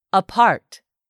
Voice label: "APART", english; 3000 words